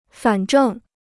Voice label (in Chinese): "反正", Chinese